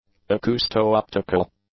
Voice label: "acousto-optical", English; adjectives

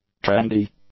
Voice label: "trendy", English; adjectives

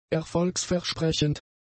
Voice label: "erfolgsversprechend", German; adjectives

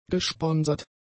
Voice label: "gesponsert", German; adjectives

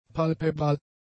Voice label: "palpebral", German; adjectives

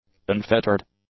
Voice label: "unfettered", english; adjectives

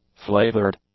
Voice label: "flavoured", english; adjectives

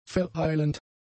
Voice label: "verheilend", German; adjectives

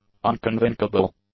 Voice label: "unconvincable", English; adjectives

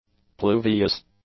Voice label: "pluvious", english; adjectives

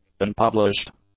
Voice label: "unpublished", english; adjectives

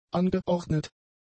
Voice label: "angeordnet", German; adjectives

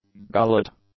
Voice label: "gullet", English; nouns

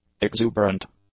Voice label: "exuberant", english; adjectives

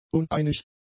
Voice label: "uneinig", German; adjectives

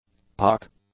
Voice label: "puck", English; nouns

